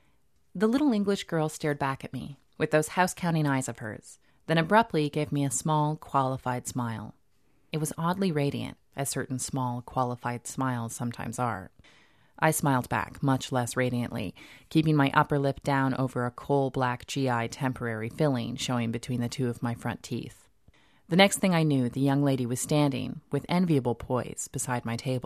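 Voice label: audiobook, home studios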